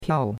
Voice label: "piao4", chinois; Cours-de-Chinois

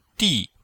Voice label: "di4", chinois; Cours de Chinois

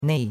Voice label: "nei4", chinois; Cours-de-Chinois

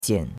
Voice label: "jian3", chinois; Cours de Chinois

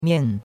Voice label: "mian4", chinois; Cours-de-Chinois

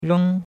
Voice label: "reng1", chinois; Cours-de-Chinois

